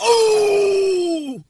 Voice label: "oh", Voice

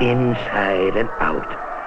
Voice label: inside and out male

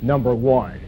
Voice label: number one male; Voice; numbers and letters

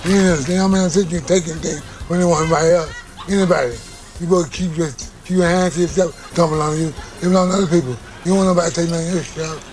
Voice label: mouthful of cookies, Voice gibbrish

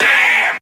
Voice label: "damn" group of men, expletives

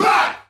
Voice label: "bah", group of men; ooh ahh

